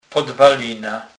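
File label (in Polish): "podwalyna", Kurpie dialect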